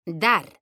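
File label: "DAR", Spanish; mekanta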